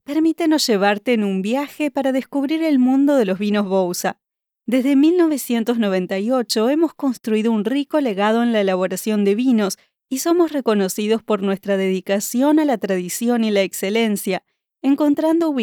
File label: narration uruguayan accent, voice-overs